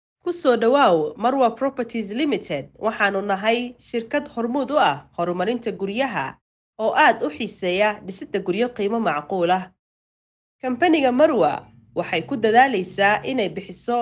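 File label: Somali, female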